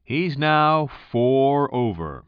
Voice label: commentator